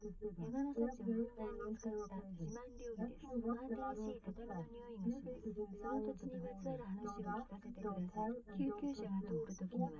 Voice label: female liverec 130ms 5cm; SiSEC10; underdetermined